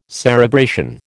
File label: "cerebration", American